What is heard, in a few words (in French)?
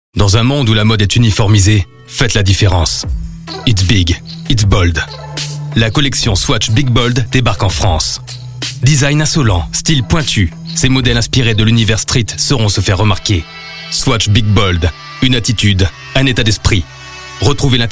Spot Podcast, Viril Autoritaire Impactant; SWATCH BIG BOLD